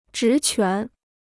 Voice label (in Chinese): "职权", Chinese